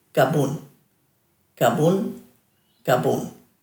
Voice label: "gabun", Dhurga